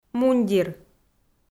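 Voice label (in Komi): "мундир", Komi, female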